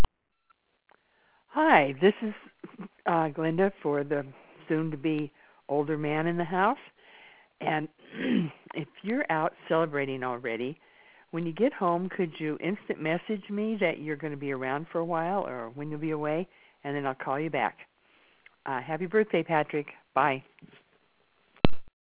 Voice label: voice mail